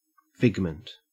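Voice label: "figment", Australian English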